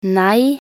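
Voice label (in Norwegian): "nei", Norwegian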